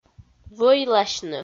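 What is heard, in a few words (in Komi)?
"вӧйласьны", Komi-Zyrian, female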